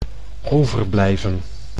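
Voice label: "overblijven", Dutch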